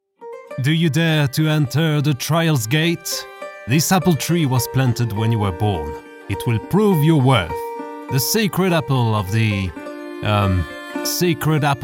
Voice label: french male characters english, Genuine Natural French Voiceover